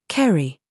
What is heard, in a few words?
DRESS TRAP, minimal pairs